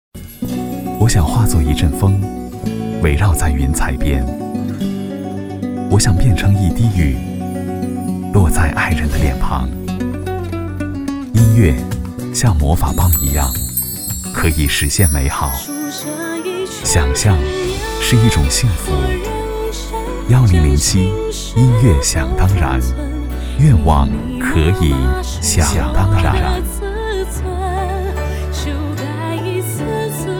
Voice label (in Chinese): 男 电台片花; 1007音乐想当然